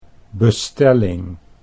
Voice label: "bestelling", Dutch